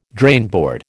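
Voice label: "drainboard", American English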